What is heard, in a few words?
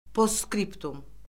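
"postskriptum", Azerbaijani; Orfoqrafiya Lüğəti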